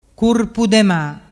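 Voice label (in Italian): "curpudema", Vernazzese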